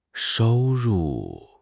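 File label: "shou1 ru4", Mandarin Chinese; HSK4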